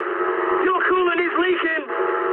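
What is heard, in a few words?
damaged water mood high, speech English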